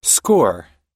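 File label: "score", English pronunciation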